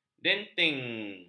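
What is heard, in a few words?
"denting", Malay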